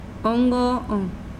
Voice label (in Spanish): "su oido", mazahua